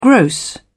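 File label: "gross", British English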